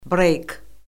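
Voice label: "breyk", Azerbaijani; Orfoqrafiya Lüğəti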